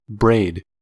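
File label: "braid", US English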